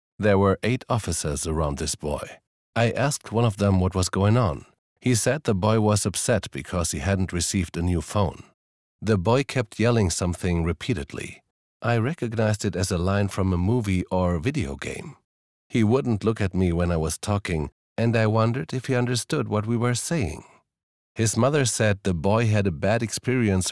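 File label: German, male, voice over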